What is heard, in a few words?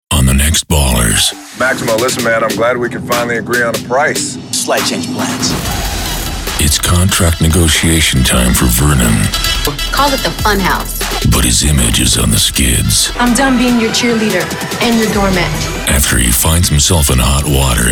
Trailer, english american; General public